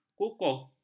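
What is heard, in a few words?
"kukuh", Malay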